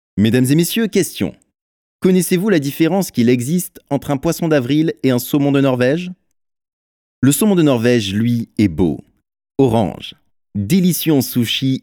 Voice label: French, Male

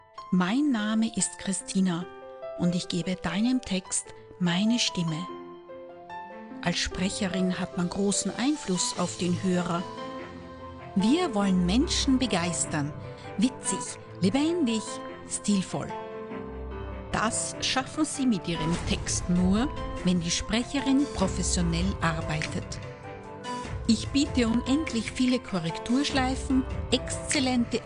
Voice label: German, Female

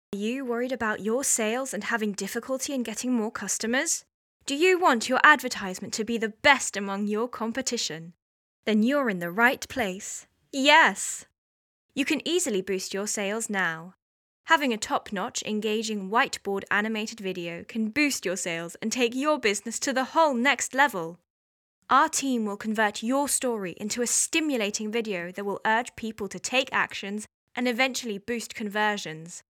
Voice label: English (UK), Female

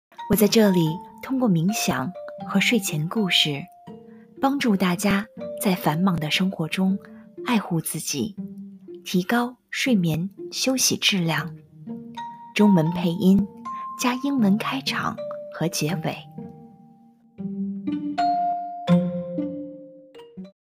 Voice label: Chinese, Female

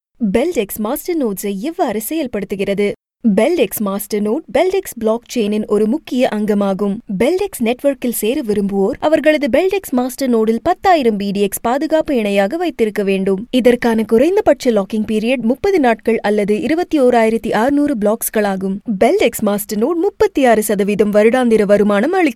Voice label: Tamil, Female

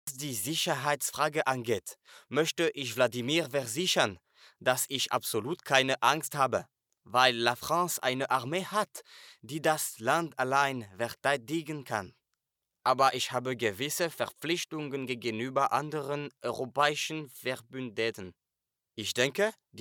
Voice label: French, Male

